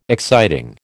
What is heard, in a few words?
"exciting", American